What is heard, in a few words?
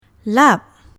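"lap", Malay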